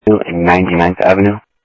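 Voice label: Sheriff West County, scanner audio trunk; ThompsonPeakScot; MCSO - WEST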